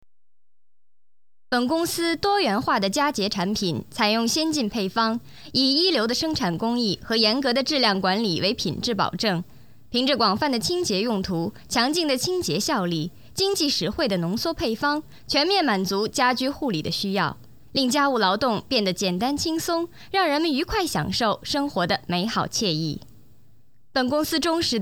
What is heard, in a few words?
narration, chinese